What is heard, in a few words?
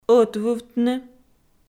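"ӧтвывтны", Komi, female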